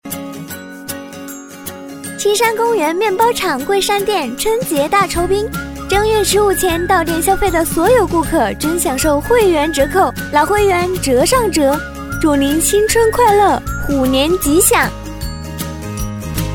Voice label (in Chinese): 女 促销广告 活力年轻; 春节大酬宾; 女007-年轻甜美; 甜美亲切